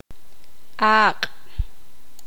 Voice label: poqomam; serpiente

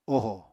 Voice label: "oho", Apatani; [oho] n. child; son; daughter

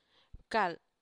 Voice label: dream, San Lucas Quiaviní Zapotec; bcal; sleep; sueño

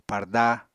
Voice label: "parda", Apatani

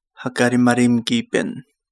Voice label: Kapingamarangi; be very fast

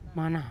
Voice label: "mana" water, Yawa

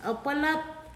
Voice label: "january", Pingelapese

